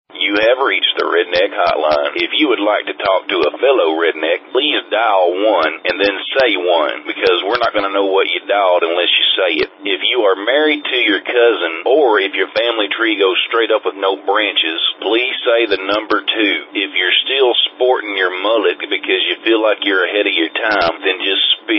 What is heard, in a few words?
redneck hotline